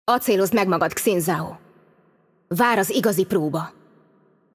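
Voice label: voice over, Hungarian; Game Outro; bloom minigame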